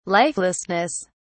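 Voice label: "lifelessness", British English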